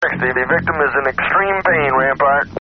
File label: extreme pain